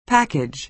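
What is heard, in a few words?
"package", American English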